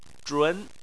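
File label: "zhun" Chinese, second tone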